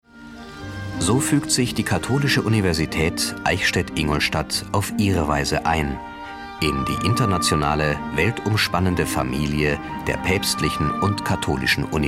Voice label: kommentar tv doc; arte

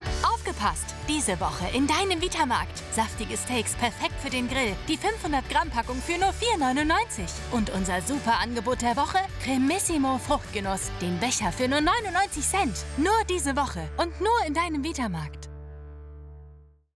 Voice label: Werbung dynamisch

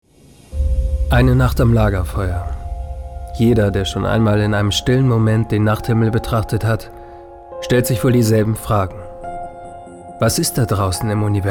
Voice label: doku

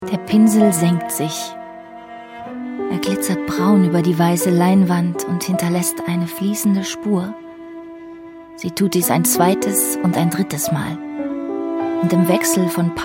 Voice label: hörspiel; zum leuchtturm; virginia woolf

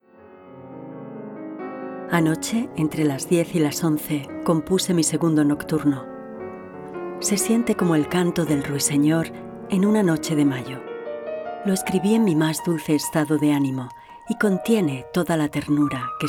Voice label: Hoerbuch Spanisch

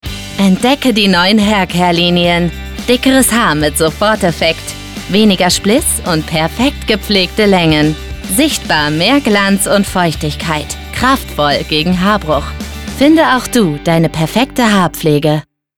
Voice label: Werbung Beauty; LOFT VOICES

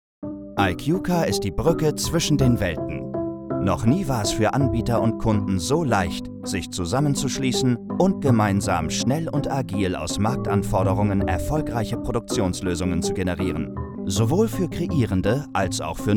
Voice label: Imagefilm; KUKA AG

